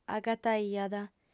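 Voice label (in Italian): "agataiat", lingua sarda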